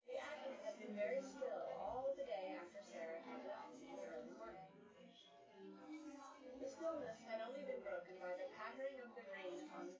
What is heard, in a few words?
female synthconv